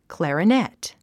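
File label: "clarinet", English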